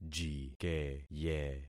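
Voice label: "jikeye", concatenated syllables; Affixing experiment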